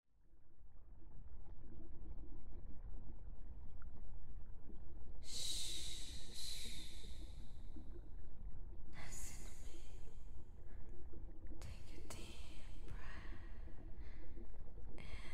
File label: asmr